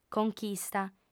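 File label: "conchista", female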